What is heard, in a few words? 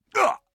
pain, combine soldier; hl2